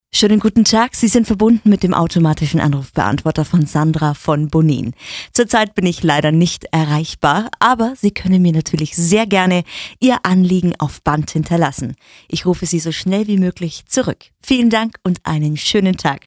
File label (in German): Anrufbeantworter